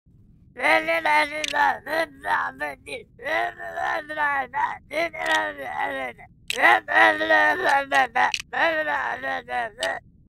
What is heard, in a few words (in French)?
Alien qui parle tranquillement